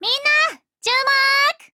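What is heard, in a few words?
FORMATION Japanese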